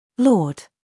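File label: "humor", British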